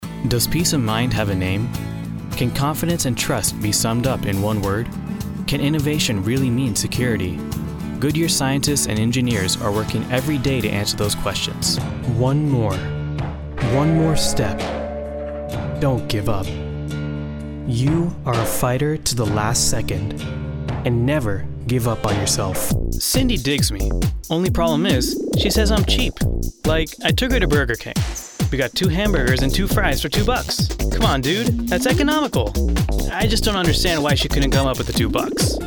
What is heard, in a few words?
Commercial Minute